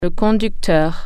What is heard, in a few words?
"driver", French